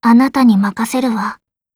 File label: with you, voice commands; svencoop; Blanc